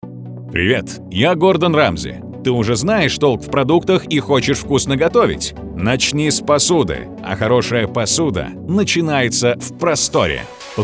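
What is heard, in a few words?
russian male commercial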